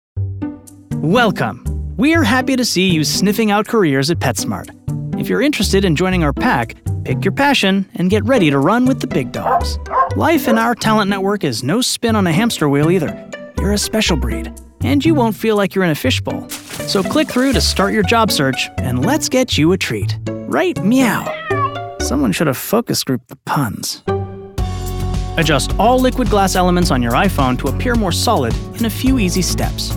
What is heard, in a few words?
E-Learning